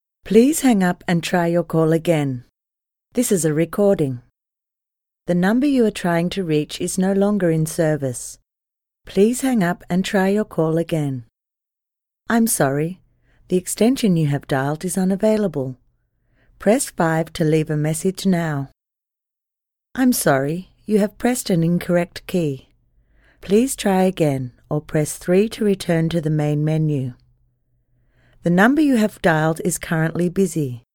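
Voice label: English Australian F IVR, accent of australia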